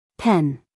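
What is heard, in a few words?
"pen", American English female; everyone-can-use-english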